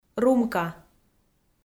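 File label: "румка", Komi, female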